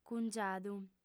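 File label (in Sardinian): "cungiadu", female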